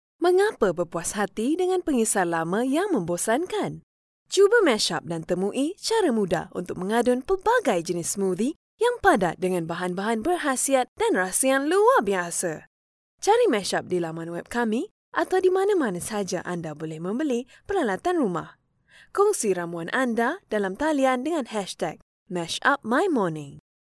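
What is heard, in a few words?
Malay Malaysia female voice over, sales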